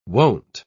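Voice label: "won't", British English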